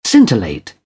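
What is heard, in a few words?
"scintillate", British English; AmericanBritish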